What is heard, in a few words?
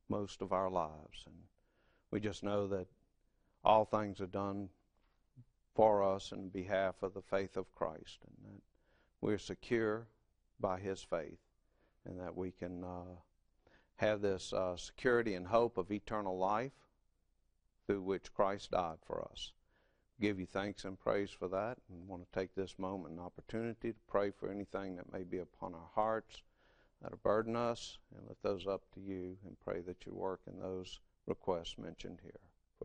English